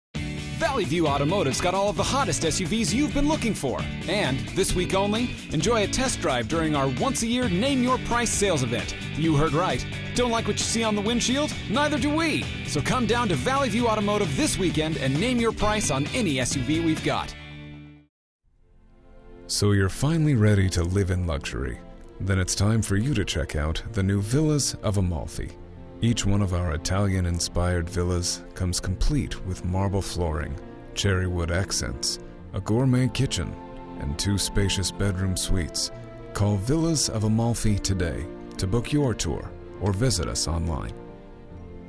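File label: Commercial voice over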